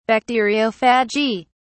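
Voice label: "bacteriophagy", British English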